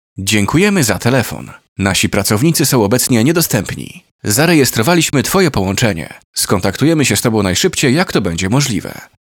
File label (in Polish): demo zapowiedzi